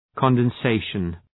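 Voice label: "condensation", English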